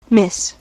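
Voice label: "miss", US English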